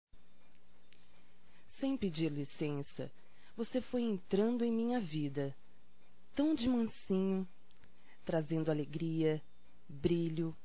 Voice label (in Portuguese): Romantica Poesia Feminino; Românticas